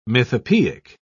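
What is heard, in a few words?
"mythopoeic", British English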